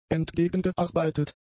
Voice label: "entgegengearbeitet", German; adjectives

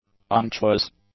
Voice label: "unctuous", English; adjectives

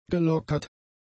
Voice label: "gelockert", German; adjectives

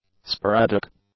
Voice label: "sporadic", english; adjectives

